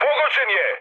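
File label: Serbian aircraft destroyed mood high; War Thunder; speech srb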